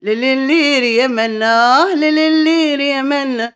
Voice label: OLD WOMAN, Arabian Traditions